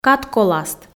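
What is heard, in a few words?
"кадколаст", Komi, female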